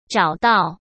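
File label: "找到", Mandarin Chinese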